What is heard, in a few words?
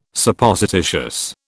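"Supposititious", British English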